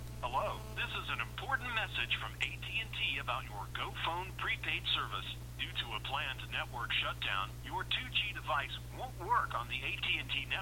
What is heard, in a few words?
att 2g autocall; att 2g sunset